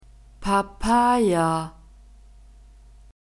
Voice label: "Papaya", German; fruits

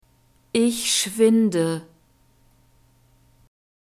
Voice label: "ich schwinde", German; verbs present tense irregular

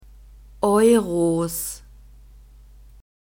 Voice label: "euros", German

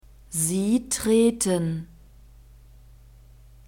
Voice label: "sie treten", German; verbs present tense irregular

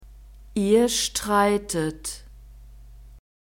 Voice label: "ihr streitet", German; verbs present tense irregular

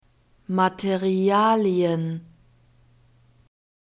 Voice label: "materialien", german

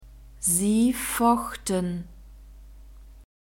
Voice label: "sie fochten", German; verbs past tense irregular

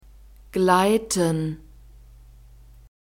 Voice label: "gleiten", German; verbs present tense irregular

